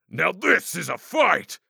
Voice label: Knight Semiwhelmed